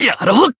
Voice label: Voice damaged jp

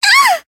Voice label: Voice damaged; yuna

